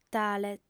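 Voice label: "tale", female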